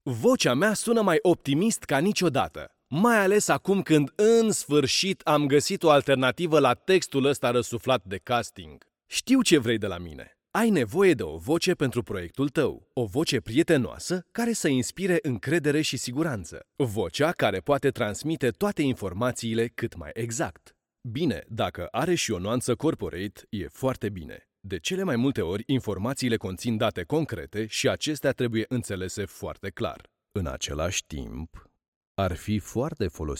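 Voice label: Romanian, general; GoLocalise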